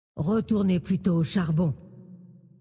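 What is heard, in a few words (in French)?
French Femme Blague; Charbon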